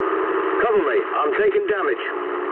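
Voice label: aircraft damaged mood low, speech English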